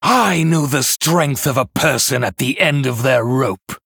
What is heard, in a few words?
Male Human Idle; Edwin; Scabbs; Cariel